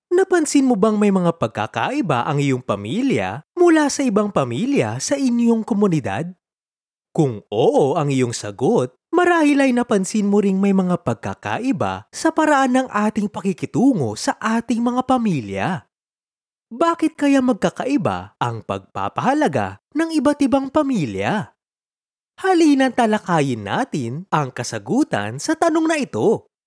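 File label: Tagalog, male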